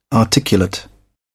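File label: "articulate", English, British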